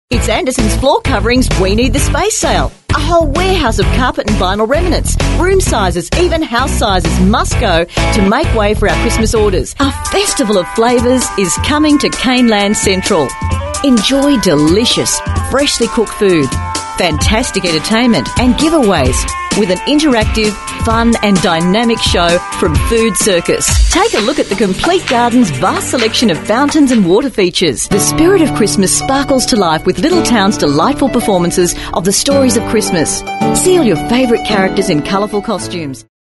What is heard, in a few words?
female talent retail